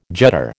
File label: "judder", American